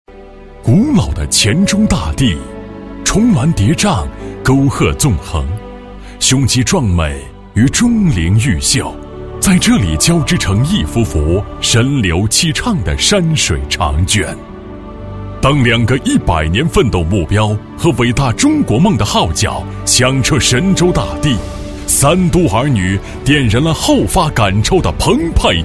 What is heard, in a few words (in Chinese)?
男 宣传片, 信用工程宣传片; 三都信用工程宣传片